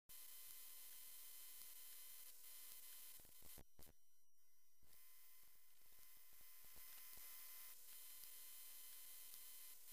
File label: narration